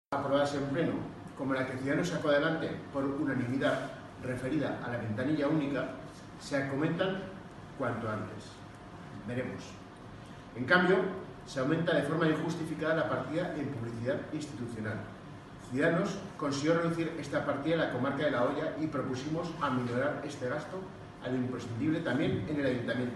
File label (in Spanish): publicidad institucional